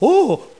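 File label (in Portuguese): "oh", Portuguese; GEOGRAFIA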